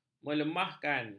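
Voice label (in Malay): "melemahkan", Malay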